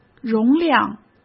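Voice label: "容量", Mandarin Chinese; hsk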